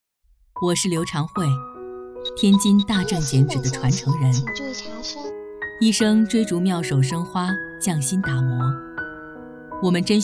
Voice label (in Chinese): 女 旁白; 大郑剪纸